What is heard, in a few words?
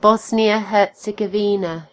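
"bosnia herzegovina", British English; gcompris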